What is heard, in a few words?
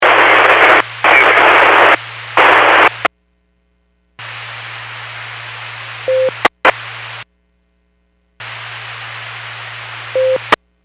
Skywarn 146.67; Stevens Point